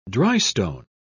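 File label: "drystone", British English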